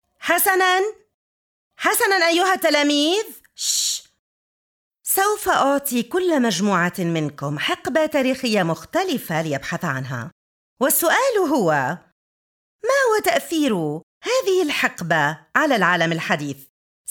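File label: arabic female character, voice demos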